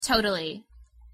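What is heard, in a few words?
"totally", English pronunciation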